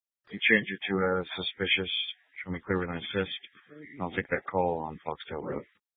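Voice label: Sheriff Dispatch, scanner audio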